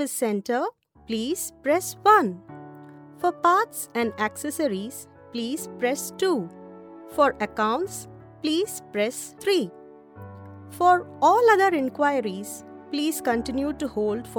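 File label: IVR English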